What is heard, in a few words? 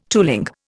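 "toolmaker", Indian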